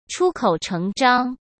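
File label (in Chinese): "出口成章", Mandarin Chinese; hsk; chinese-flashcards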